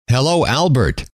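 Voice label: "hello", male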